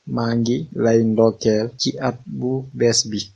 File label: christmas, wolof